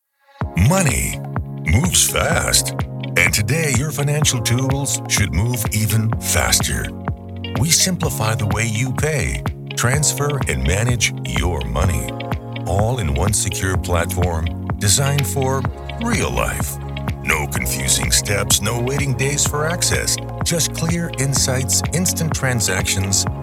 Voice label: Narration